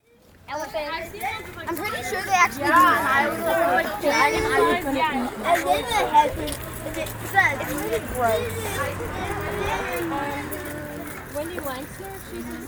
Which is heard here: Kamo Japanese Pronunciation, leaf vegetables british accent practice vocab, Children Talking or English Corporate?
Children Talking